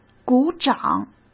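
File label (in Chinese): "鼓掌", Mandarin Chinese; hsk; chinese-flashcards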